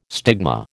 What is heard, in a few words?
"stigma", American